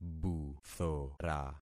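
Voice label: "buthora", concatenated syllables; Affixing experiment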